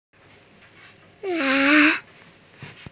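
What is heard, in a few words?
"a1", Mandarin Chinese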